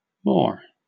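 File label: "moor", British English; pronunciations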